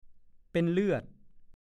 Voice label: "เป็นเปลี้ย", ภาษาโคราช; เป็นอัมพาตบางครั้งใช้ว่า เป็นเปลี้ยเป็นง่อย

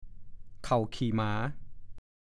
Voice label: "เข่าขี่หมา", ภาษาโคราช

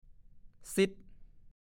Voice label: "ซิด", ภาษาโคราช; 1. สึกกร่อน

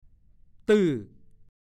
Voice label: "ตื้อ", ภาษาโคราช

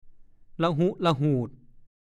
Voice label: "ล่ะหุ๊ล่ะหูด", ภาษาโคราช; ขะมุกขะมอม เปรอะเปื้อน

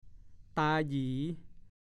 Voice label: "ตาหยี", ภาษาโคราช

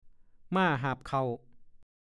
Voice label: "ม่าหาบเข่า", ภาษาโคราช

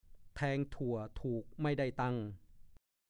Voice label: "แทงถั่วถูกไม่ได้ตังค์", ภาษาโคราช; (สำนวน) สูญเสียผลประโยชน์เพราะถูกโกง

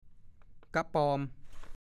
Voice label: "กะปอม", ภาษาโคราช; 1. กิ้งก่า

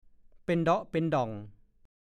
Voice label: "เป็นด้วงเป็นแมง", ภาษาโคราช; (สำนวน) มีมลทิน มีราคี มักใช้แก่หญิงที่ไม่บริสุทธิ์ทางพรหมจรรย์

